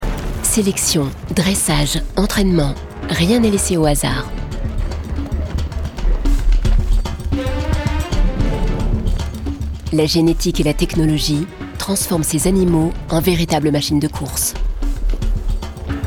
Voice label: DOC CHEVAUX DYNAMIQUE

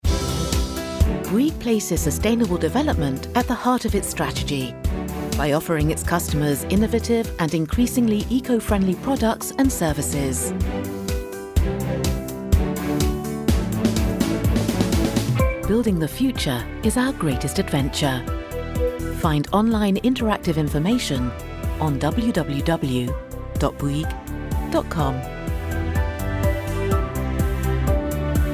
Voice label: repondeur; bouygues

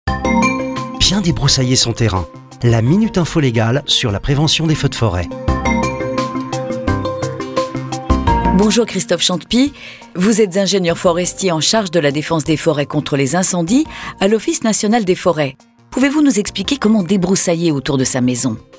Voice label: Podcast Publi reportage; Debrousaillement

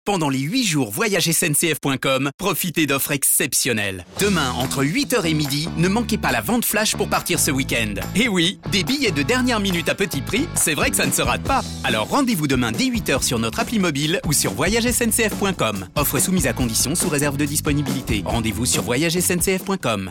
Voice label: SPOT RADIO TEASER; SNCF

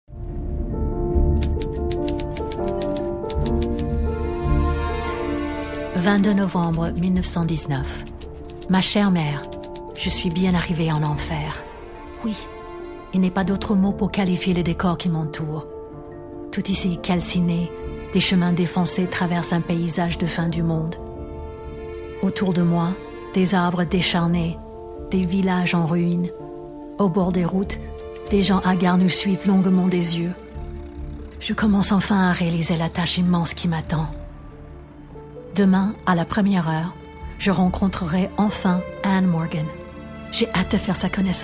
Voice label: Voix Off VF; Chemin des Dames

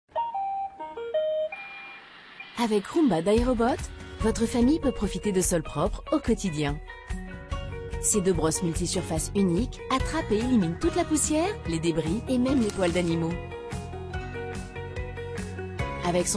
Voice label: FR PUB TV aspirateurs; Roomba

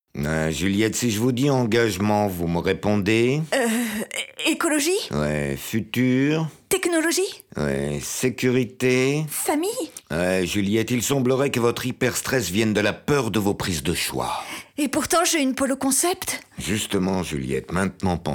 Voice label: PUB Voix; Axe Polo

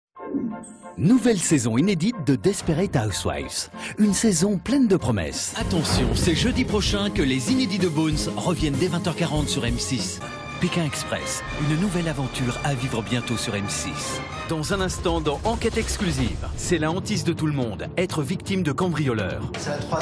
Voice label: BANDE ANNONCE TV

